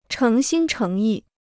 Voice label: "诚心诚意", Chinese